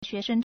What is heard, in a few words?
"xue2sheng", Chinese